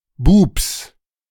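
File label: "Bubs", German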